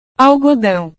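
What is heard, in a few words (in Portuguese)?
"algodao", Portuguese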